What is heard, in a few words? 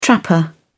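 "trapper", British English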